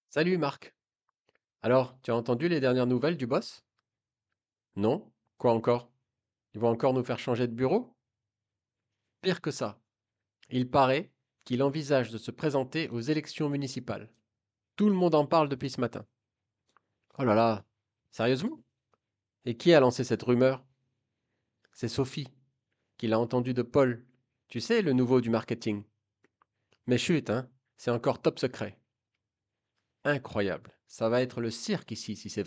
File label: french at work dialogue; french at work gossip around the coffee machine